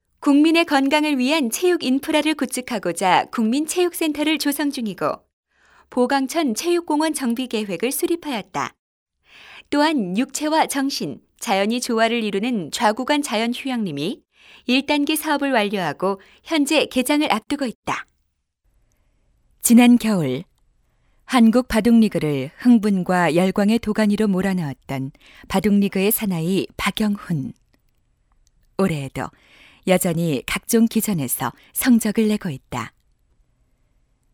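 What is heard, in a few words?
Korean, female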